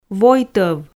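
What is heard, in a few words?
"войтӧв", Komi, female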